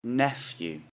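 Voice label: "Nephew", English; FAMILY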